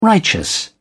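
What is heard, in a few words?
"righteous", British English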